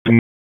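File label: Police Southwest District Tac, radio; Raleigh; p25; wake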